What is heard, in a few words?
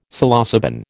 "Psilocybin", American